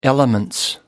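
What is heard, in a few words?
"elements", British English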